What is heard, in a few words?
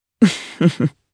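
Vox Happy, Japanese; Lucias; kings raid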